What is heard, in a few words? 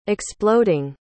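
"exploding", English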